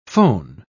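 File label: "fohn", British English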